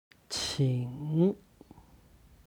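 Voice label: "qing", Chinese; 请; お願いします; HSK Level One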